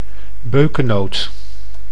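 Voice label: "beukenoot", Dutch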